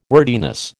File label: "wordiness", American